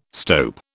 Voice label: "stope", British

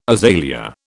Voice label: "azalea", British

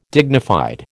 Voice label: "dignified", American

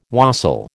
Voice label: "wassail", American

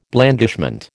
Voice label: "blandishment", American

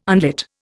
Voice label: "unlit", Indian

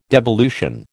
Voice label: "devolution", American

